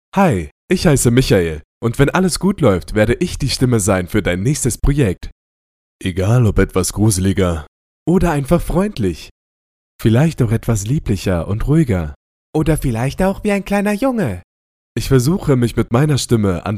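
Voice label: German male; lektoring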